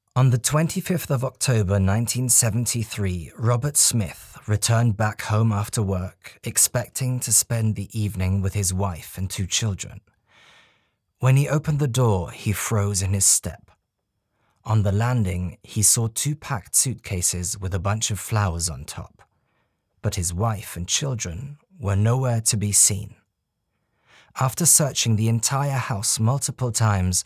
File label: True Crime, EN